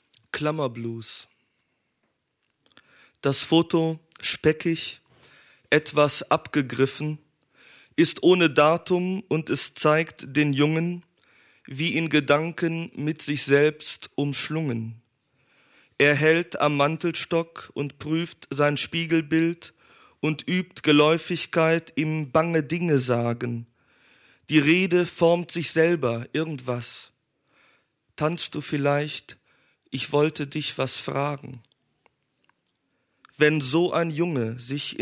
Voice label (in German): Lesung; Klammerblues